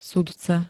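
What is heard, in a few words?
"sudca", Slovak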